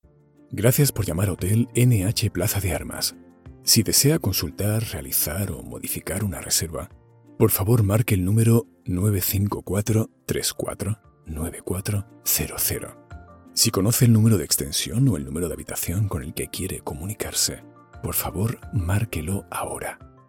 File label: spanish ivr